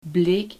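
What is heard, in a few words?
"bleek", Dutch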